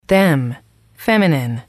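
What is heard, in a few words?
to them, female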